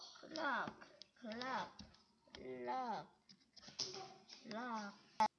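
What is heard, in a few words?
"clock", spoken word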